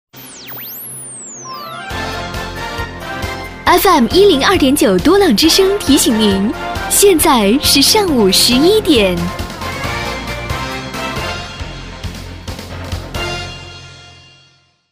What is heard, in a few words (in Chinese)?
女 电台片头【整点报时】; 轻松活泼